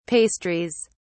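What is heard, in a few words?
"pastries", British English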